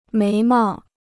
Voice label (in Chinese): "眉毛", Chinese